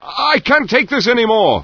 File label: fear; Source Engine; bshift; rosenberg